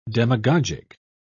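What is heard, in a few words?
"demagogic", British English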